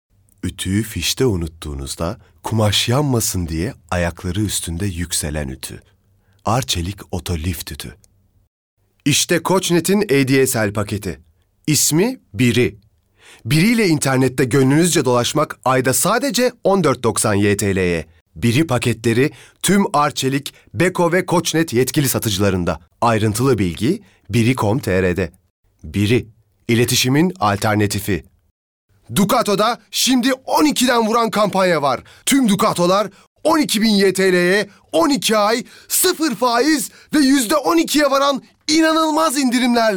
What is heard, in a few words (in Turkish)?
GENC ERKEK